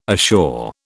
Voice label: "assure", British